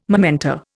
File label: "momenta", Indian